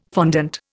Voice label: "fondant", Indian

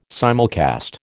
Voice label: "simulcast", American

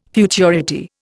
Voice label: "futurity", Indian

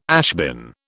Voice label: "ashbin", British

